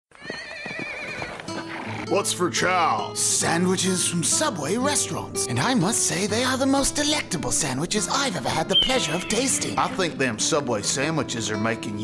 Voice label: Voiceover; Reel; Internet Session, Los Angeles